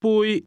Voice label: "cup", cantonese